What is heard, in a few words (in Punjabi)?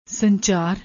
"sanchar", Punjabi; ਸੰਚਾਰ